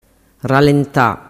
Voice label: "ralenta", dialettu